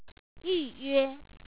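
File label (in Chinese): "yuyue", Chinese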